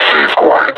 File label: announce kill player, combine suppressor; Half Life Alyx